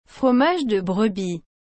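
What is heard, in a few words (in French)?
"fromage de brebis", French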